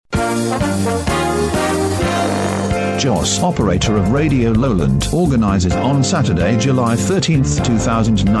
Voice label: PROMO BBQ PARTY english male